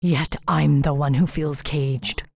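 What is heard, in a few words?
pissed, English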